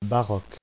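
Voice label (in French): "baroque" French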